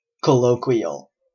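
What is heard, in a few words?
"colloquial", Canadian English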